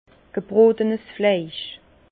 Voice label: "gebrotes", Bas Rhin; viande rotie

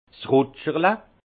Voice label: "rutscherle", alsace; vin; gobelet de dégustation

